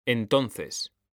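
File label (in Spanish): "entonces", Spanish; mekanta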